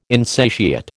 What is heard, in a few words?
"insatiate", American